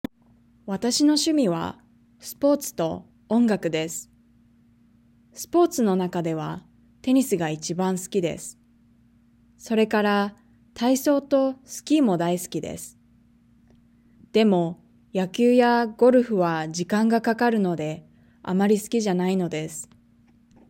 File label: Reading natural; Nakama; OJAD